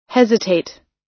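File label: "hesitate", US English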